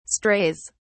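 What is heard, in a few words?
"strays", English, British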